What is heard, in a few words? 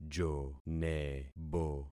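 "jonebo", concatenated syllables; Affixing experiment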